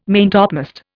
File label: "maintopmast", Indian